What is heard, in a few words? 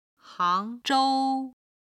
"hang2zhou1", chinese